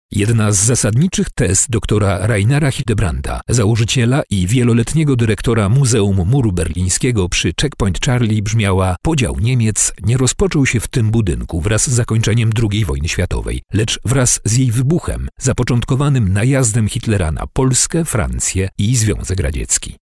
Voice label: male polish voice over talent narrative

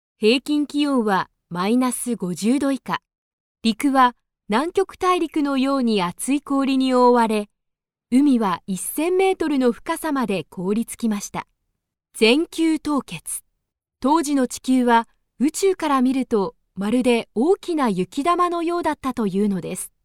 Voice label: female japanese voice over narrative

